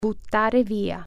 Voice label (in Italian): "throw away", Italian